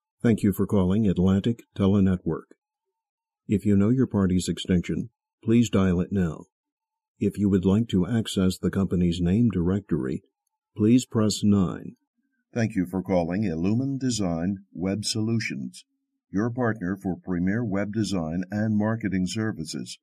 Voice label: Examples IVR

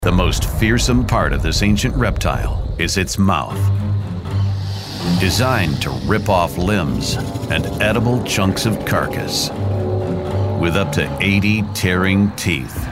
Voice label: Narration

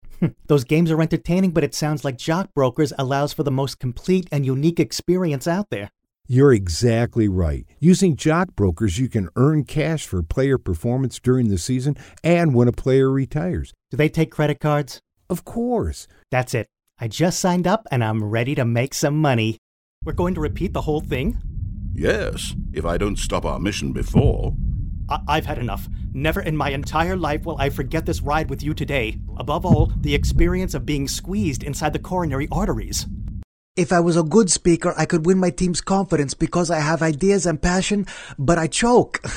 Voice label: Animation

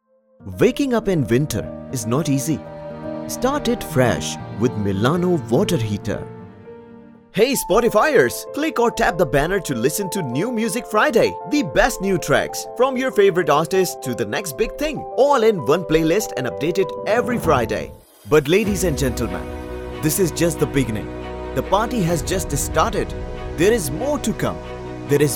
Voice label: INDIAN ACCENT

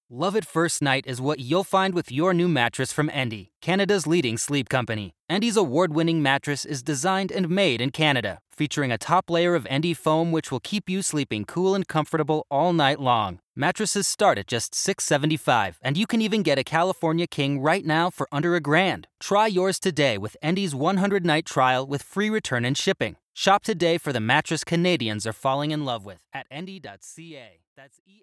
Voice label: fast

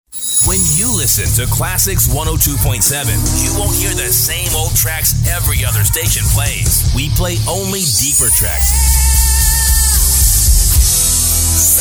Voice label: Imaging

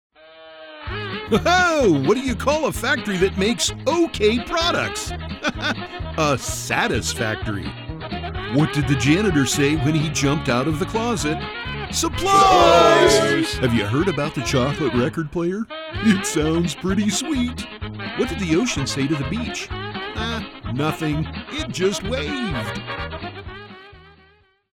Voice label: Comedic; Voquent

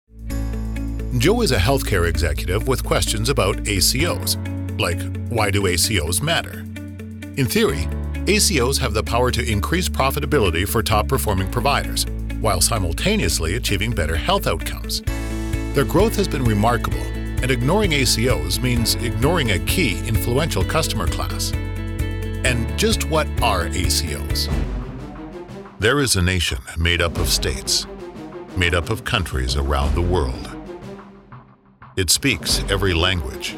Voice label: Narration

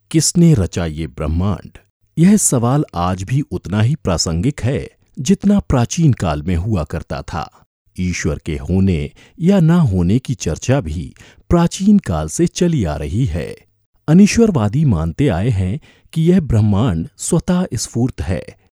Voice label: hindi

